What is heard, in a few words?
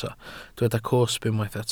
"cosb", welsh